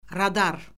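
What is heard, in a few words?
"radar", Azerbaijani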